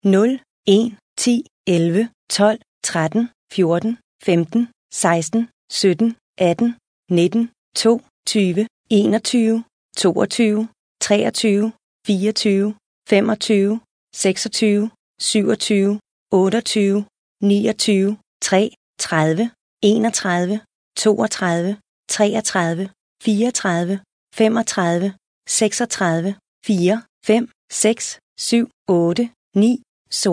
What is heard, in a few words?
Dealer Voice, sk, Roulette; real Dealer European Roulette Classic; da